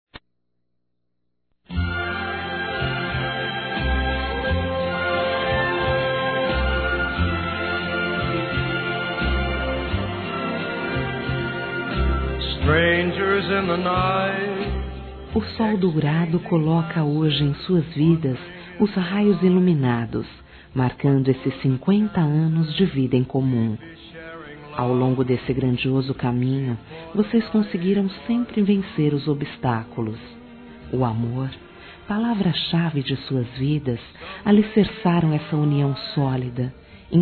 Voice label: BODAS OURO F, Telemensagens; Bodas e Casamento

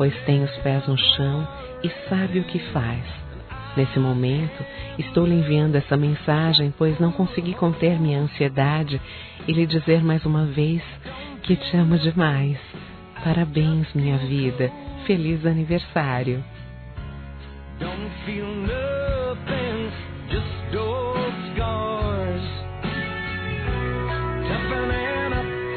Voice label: ROMANTICA (AMOR) F; Aniversários; Aniversários diversos; fem

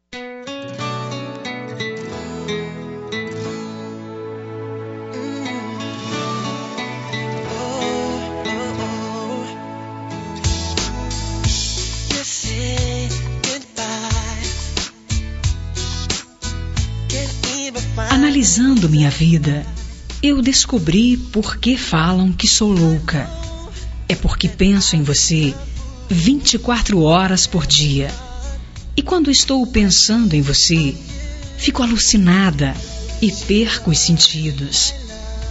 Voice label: telemensagem de Amor Telemensagem voz feminina

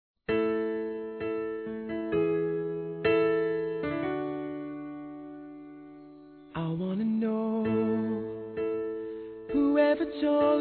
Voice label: NEUTRO ALFINETADA F; Aniversários; Aniversários diversos; fem

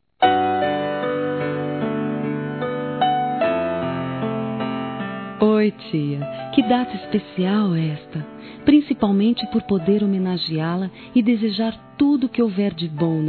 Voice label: Aniversário Tia F, Telemensagens; Aniversários; Aniv Familiar

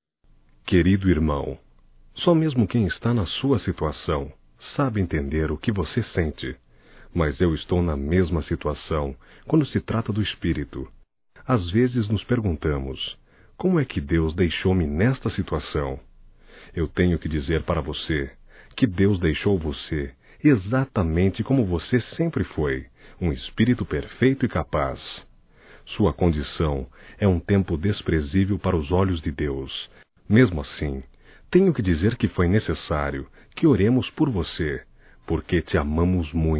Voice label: OTIMISMO, Evangelico, masc; Telemensagens 2017

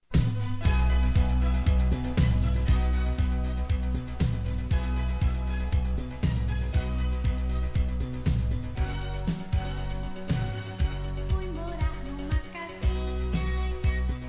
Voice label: Filho (a) Criança Feminino Masculino; Telemensagens 2017; Aniversários; Aniversário Infantil

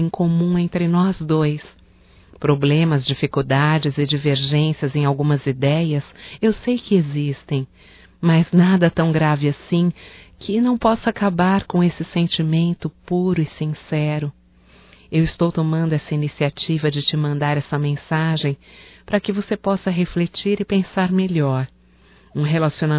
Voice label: AINDA TE AMO (F); Declaração de amor; fem; Telemensagens 2017

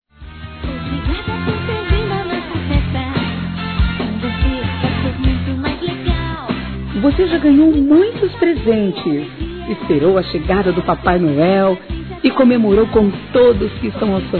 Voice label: Ano Novo PARA Criança Feminino; Telemensagens; Natal e Ano novo

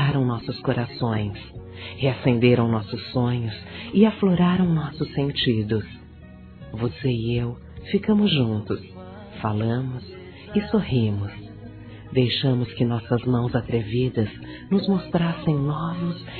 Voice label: ficar com amigo, Telemensagens, Fem; Amizade

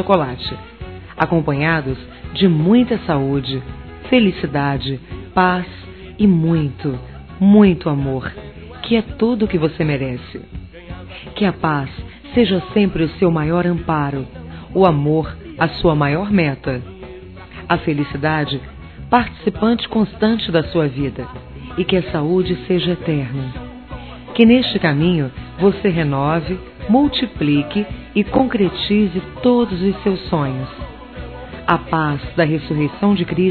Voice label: PARA MAMÃE F, Páscoa, fem; Telemensagens

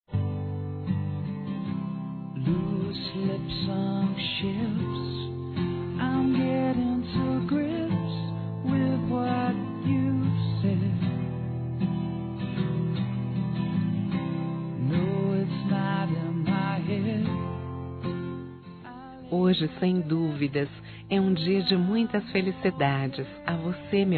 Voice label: ANIV. ROMANTICO F

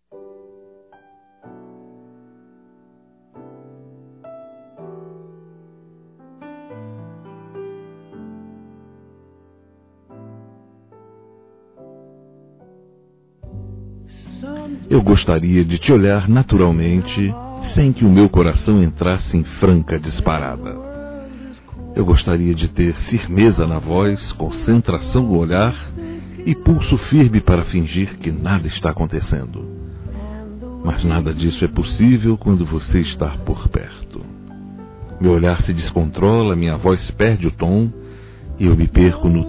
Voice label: Conquista Romantica Forte Masculino; Telemensagens; Conquistas Cantadas paqueras; Telemensagens 2017

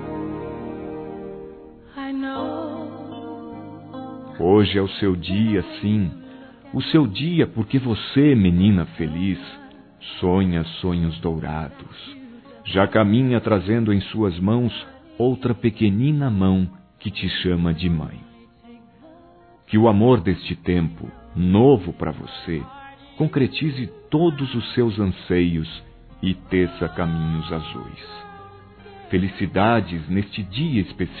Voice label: MÃE JOVE, Telemensagens, Maternidade, masc

